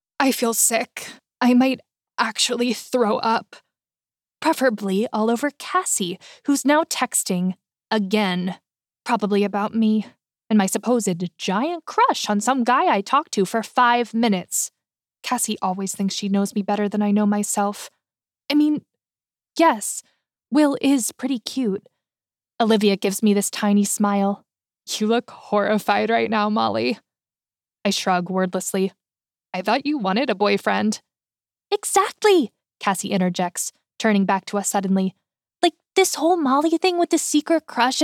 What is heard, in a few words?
1st Person YA